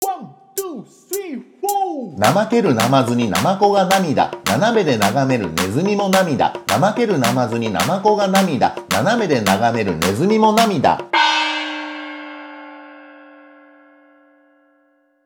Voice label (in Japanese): 早口言葉リズム（中級編）